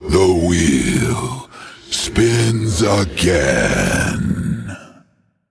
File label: Male Undead Emote Greetings